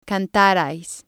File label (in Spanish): "cantarais", spanish